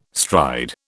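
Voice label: "stride", British English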